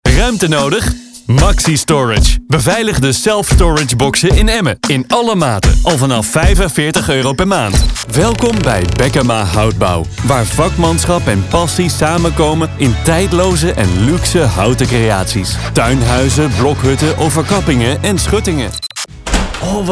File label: Commercial